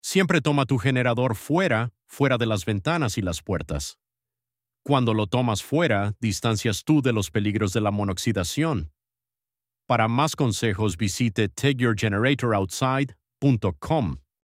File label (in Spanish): Radio Ready PSA Español; recursos de seguridad; kit de herramientas de seguridad publica